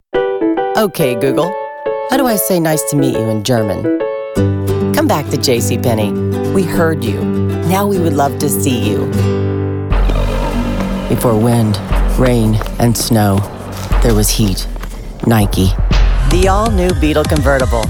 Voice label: Commerical